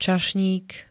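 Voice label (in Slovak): "čašník", Slovak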